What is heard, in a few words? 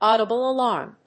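"audible alarm", English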